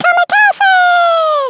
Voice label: Kamikaze, Swedish, Speech; 11khz; International